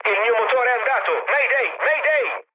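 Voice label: Italian damaged engine mood low, speech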